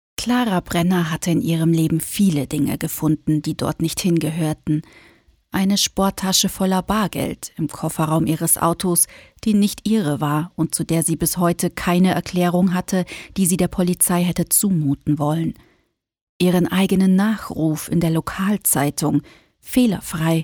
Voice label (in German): Hoerprobe, sprecher